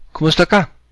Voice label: how are you, cebuano